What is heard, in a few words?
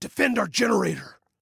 Defend Generator, Male